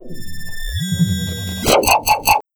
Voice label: Space Voice